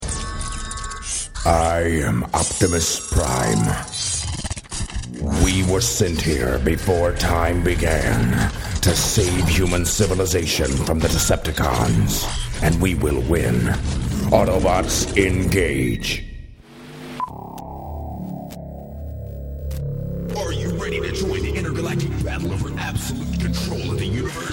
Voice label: animation